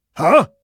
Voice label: surprise, metropolice; hl2

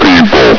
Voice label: "people", Combine soldier; hl2

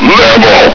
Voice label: "level!", Combine soldier; hl2

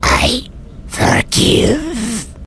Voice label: wound, vort speech; hl2

